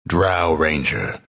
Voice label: announcer pick drow; dlc bastion